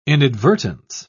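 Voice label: "inadvertence", British English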